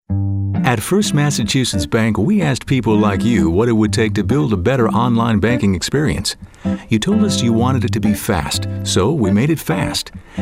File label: conversational